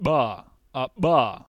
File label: voiced bilabial implosive, phoneme